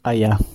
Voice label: hello, gwentian